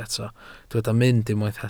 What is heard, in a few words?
"mynd", Welsh